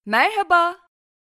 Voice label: bonjour, voix